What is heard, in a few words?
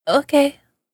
ok, female voice tone; notification sounds